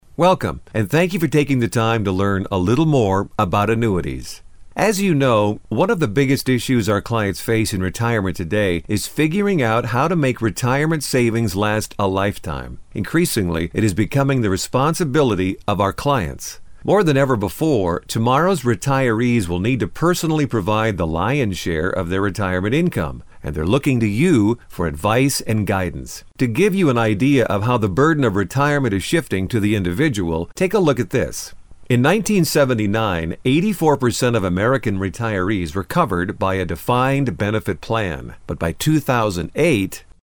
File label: eLearning Male; commercial voiceover